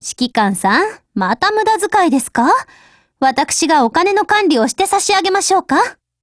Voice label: DIALOGUE JP; 92typeMod

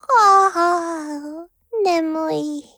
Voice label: PHRASE JP; G11Mod

